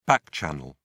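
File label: "back-channel", British English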